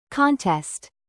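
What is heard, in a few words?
"bass", noun, British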